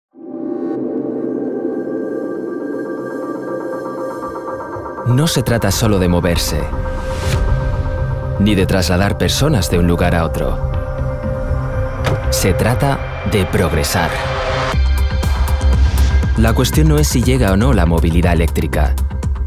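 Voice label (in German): Spanish Kommentar Neutral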